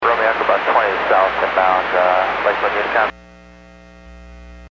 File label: Aviation 122.7; KSTE